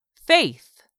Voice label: "faith", English; 3000 words

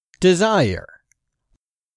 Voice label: "DESIRE", english; 3000 words

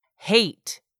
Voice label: "HATE", english; 3000 words

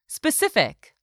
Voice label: "specific", english; 3000 words

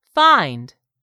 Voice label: "FIND", english; 3000 words

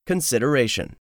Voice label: "consideration", English; 3000 words

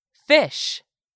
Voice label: "fish", English; 3000 words

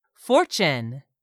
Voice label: "FORTUNE", english; 3000 words

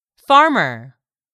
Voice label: "farmer", English; 3000 words

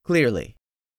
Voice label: "clearly", english; 3000 words

